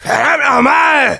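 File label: negative vocalization, German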